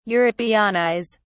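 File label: "europeanize", British English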